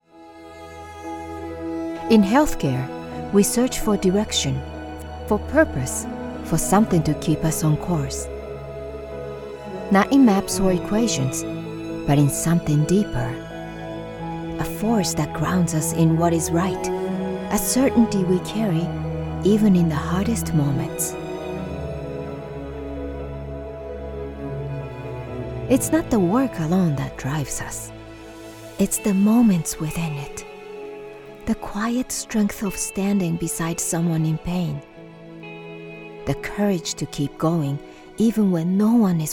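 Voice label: Japanese accented English female advertisement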